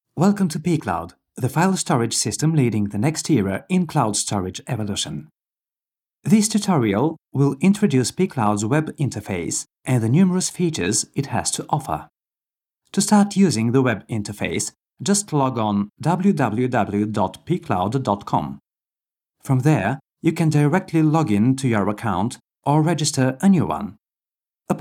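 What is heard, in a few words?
Bulgarian Accented English; GoLocalise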